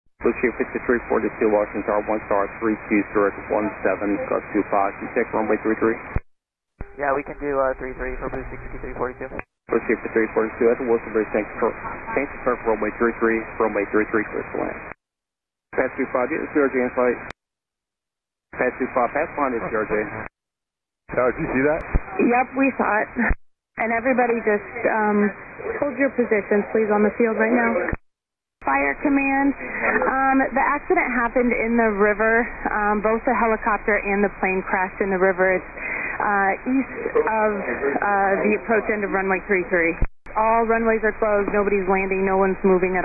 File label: mid-air collision ATC; 2025 Potomac River